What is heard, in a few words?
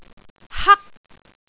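"hak1", Cantonese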